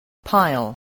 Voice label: "pile", voice, English